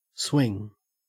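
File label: "swing", Australian English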